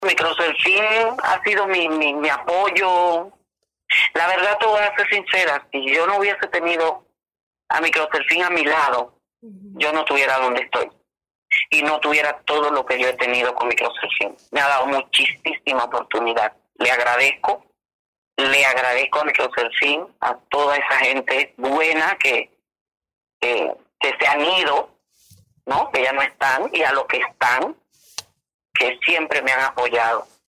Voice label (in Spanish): testimonio